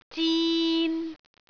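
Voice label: "zin3", Cantonese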